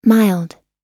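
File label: "mild", English; oxford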